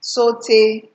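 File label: "sotay", Nigerian Pidgin, female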